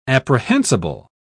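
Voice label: "apprehensible", British English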